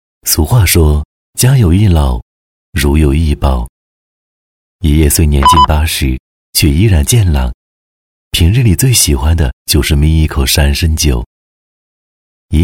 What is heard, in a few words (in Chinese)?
A男 专题; 山参